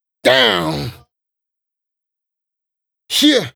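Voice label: Rap Do It Adlib, vocal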